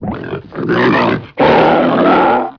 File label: vox tusken